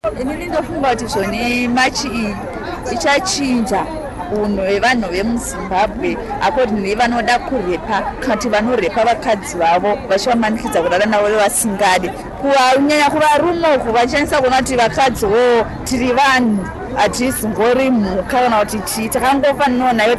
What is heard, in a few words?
march vox pop; code red